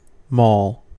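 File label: "maul", American English; pronunciations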